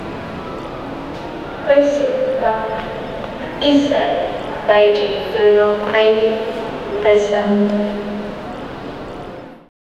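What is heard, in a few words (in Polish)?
elektryczny głos kobiety z, announcement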